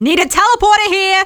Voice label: scout need teleporter, fem scout

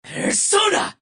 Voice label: battle cry; Adachi

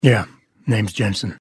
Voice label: battlecry

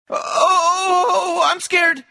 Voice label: scared; taunt packs; rickmorty

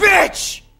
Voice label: jeers; ocelot

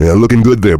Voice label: heavy good job; tf; duke

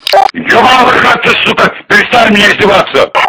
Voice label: hurt; tf; polkovnik

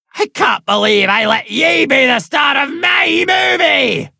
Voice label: angry i cant beleive; conductor boss; a hat in time

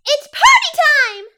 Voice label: battlecry; Suika

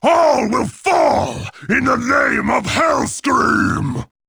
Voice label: battlecry; garrosh

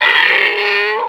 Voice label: pain; tf; slender; therium

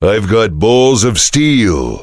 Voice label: heavy taunts; tf; duke

